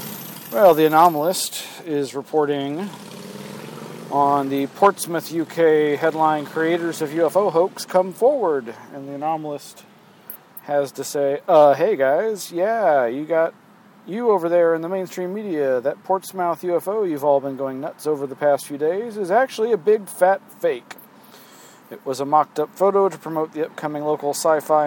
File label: radio news